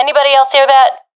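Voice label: heard sound, professional female; vo; Counter Strike Model Pack; CS:GO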